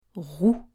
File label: "rou", French